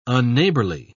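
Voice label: "unneighbourly", British English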